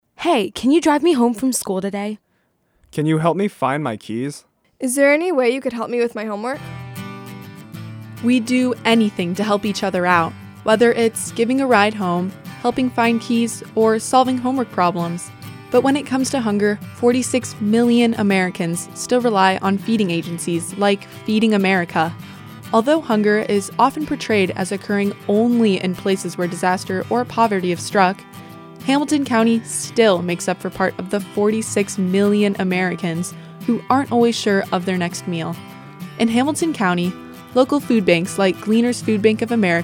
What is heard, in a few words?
PSA